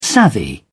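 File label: "savvy", English, British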